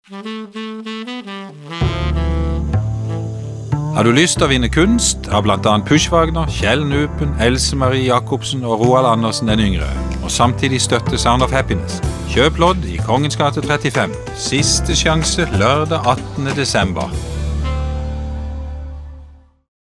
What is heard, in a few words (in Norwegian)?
Reklame; SOH Kunstlodd